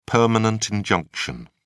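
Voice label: "permanent injunction", British English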